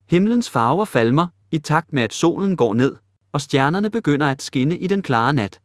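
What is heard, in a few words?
Danish, Denmark; iOS Sounds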